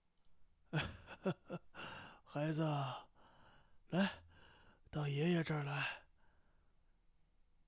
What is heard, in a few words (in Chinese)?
老年角色